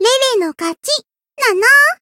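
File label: win, Japanese; lele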